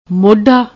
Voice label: "moddi", Punjabi

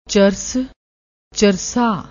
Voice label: "charas", Punjabi; ਚਰਸ

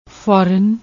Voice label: "foran", Punjabi

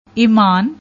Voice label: "eman", Punjabi; ਈਮਾਨ

